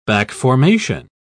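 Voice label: "back-formation", British English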